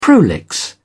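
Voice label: "prolix", British English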